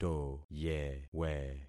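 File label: "toyewe", concatenated syllables; Affixing experiment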